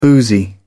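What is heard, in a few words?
"boozy", British English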